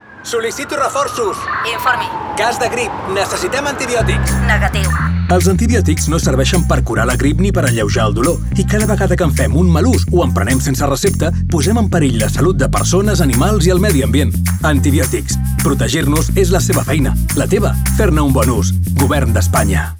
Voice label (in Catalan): CUÑA CATALAN